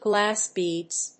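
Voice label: "glass beads", English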